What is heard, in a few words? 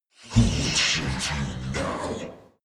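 demon giving orders, creatures; kreaturen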